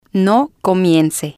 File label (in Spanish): no comience, Spanish